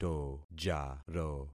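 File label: "tojaro", concatenated syllables; Affixing experiment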